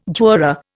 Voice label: "juror", Indian